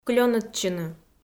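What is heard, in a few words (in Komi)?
"клёнӧдчыны", Komi, female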